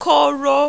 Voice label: "koro", Yoruba, high tone; HIGH SET